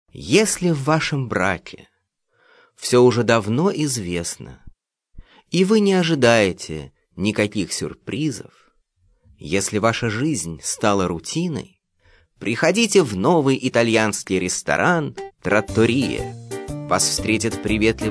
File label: reklama